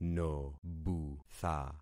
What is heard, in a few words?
"nobutha", concat syllables; Affixing experiment